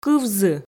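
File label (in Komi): "кывзы", female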